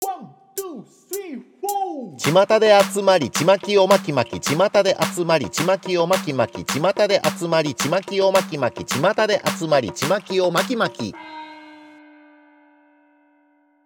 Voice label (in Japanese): 早口言葉リズム（中級編）